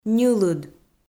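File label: "ньылыд", Komi, female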